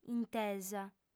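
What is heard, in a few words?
"intesa", female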